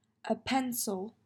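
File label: "A pencil", English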